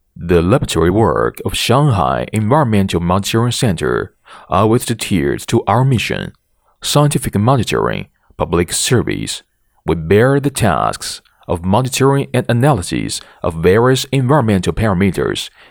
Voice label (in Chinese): 男英